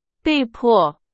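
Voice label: Chinese; hsk4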